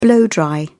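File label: "blow dry", British English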